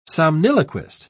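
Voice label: "somniloquist", British English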